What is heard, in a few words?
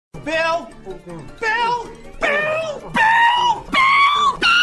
Reggie yells at Bill